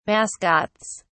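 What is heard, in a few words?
"mascots", British English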